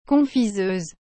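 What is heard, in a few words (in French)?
"confiseuse", French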